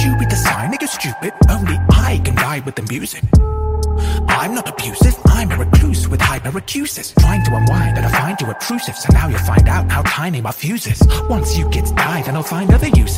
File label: rap; warden